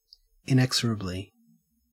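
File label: "inexorably", Australian English; pronunciations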